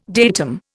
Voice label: "datum", Indian